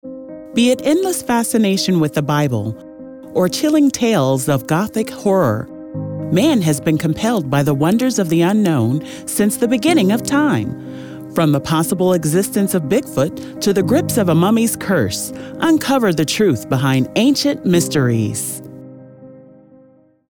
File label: Announce